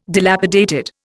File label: "dilapidated", Indian